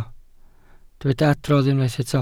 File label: "adrodd", Welsh